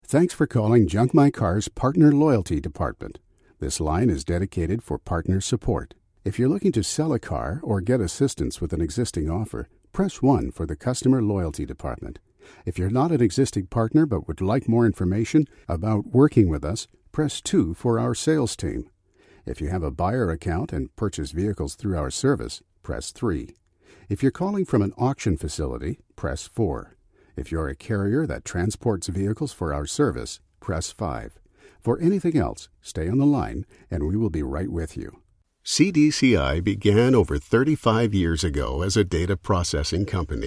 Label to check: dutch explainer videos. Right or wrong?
Wrong